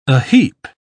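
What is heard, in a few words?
"aheap", British English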